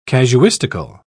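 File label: "casuistical", British English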